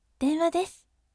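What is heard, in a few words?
"denwadesu", gentle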